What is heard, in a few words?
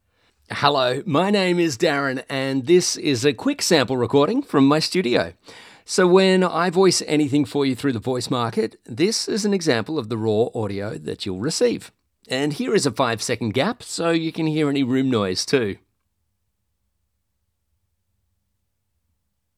Studio Sound Check